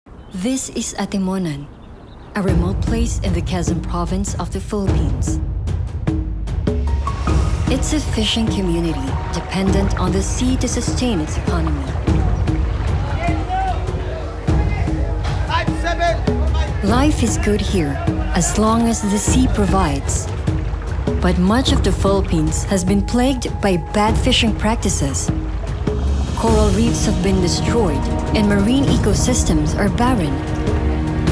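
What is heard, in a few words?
documentary, English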